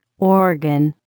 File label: "organ", vocabulary